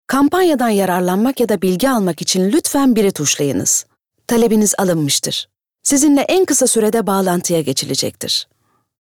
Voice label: IVR